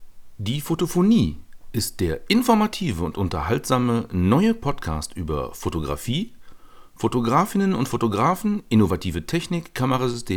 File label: Samson G-Track; zum videos drehen mit der dslr spiegellosen